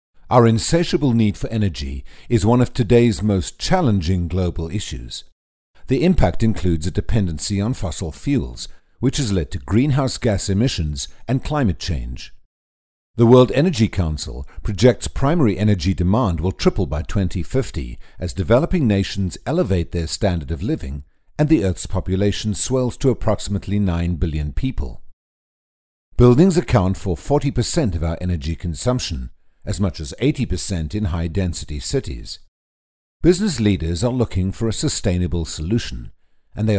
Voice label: Corporate, UK